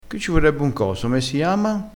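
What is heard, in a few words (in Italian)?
"coso", dialettale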